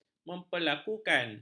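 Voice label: "memperlakukan", Malay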